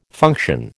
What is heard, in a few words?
"function", American